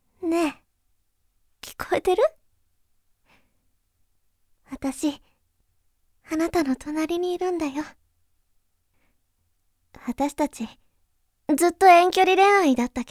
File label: girl